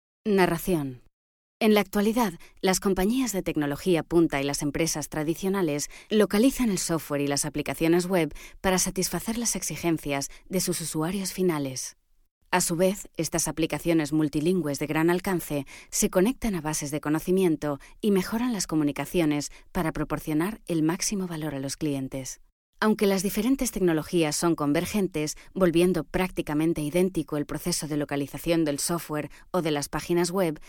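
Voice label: European Spanish, narrative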